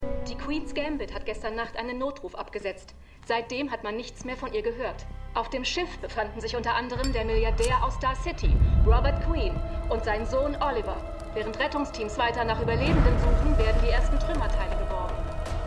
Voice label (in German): Nachrichtensprecherin; Arrow